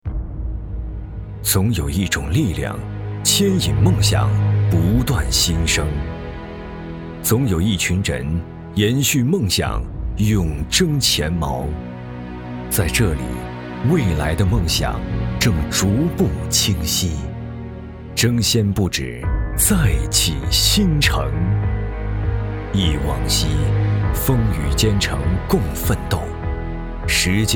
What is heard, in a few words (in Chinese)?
男 专题 浑厚 深沉 党政; 总有一种力量